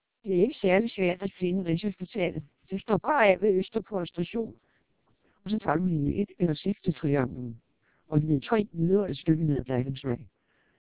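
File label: Danish speech, TWELP 480 bps vocoder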